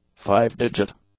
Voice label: "five-digit", english; adjectives

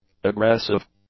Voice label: "aggressive", English; adjectives

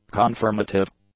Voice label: "confirmative", English; adjectives

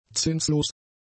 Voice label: "zinslos", German; adjectives

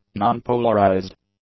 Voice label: "non-polarized", english; adjectives

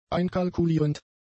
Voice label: "einkalkulierend", German; adjectives

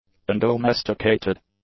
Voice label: "undomesticated", English; adjectives

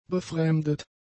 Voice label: "befremdet", German; adjectives

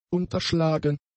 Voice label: "unterschlagen", German; adjectives

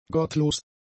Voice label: "gottlos", German; adjectives

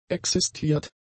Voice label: "existiert", German; adjectives

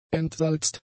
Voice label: "entsalzt", German; adjectives

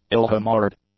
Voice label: "ill-humoured", English; adjectives

